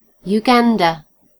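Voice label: "uganda", British English; gcompris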